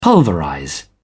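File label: "pulverize", British English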